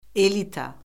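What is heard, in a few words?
"elita", Azerbaijani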